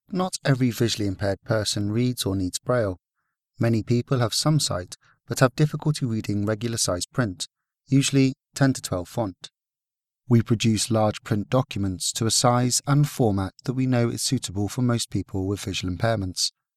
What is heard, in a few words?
Human general male